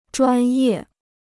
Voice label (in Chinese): "专业", Chinese